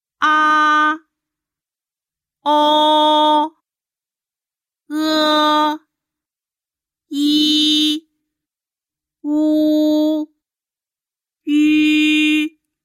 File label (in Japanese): pinyin tanboin